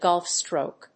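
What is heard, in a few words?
"golf stroke", English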